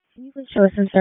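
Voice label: EMS Dispatch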